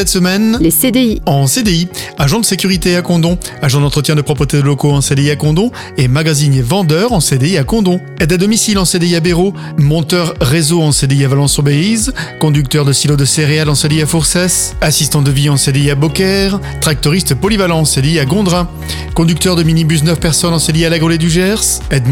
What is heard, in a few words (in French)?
PUB FRANCE TRAVAIL CDI